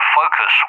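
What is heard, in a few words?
"Focus", English, UK; CallSign; DCS; Allied Flight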